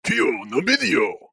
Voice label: Angry Japanese Man Saying Video Of The Day In Japanese